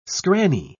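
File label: "scranny", British English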